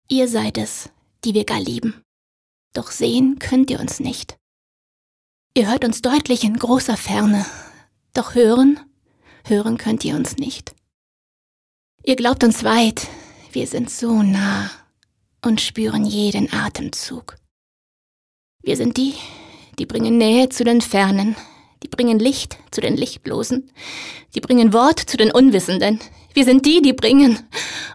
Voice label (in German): traurig, frauen; sprecher